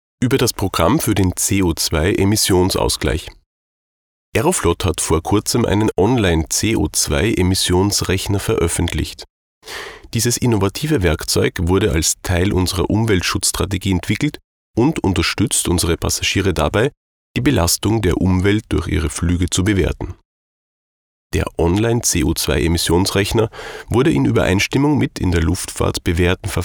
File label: Male German